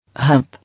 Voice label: "Hump", English